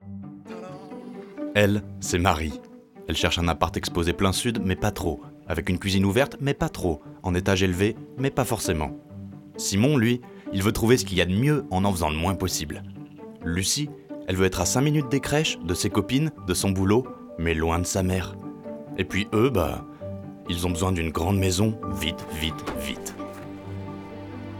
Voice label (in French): Publicité espoir espiègle; Se Loger